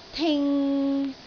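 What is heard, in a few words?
"ting3", Cantonese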